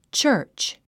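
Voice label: "church", English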